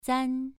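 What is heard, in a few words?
"zan2", Chinese pronunciation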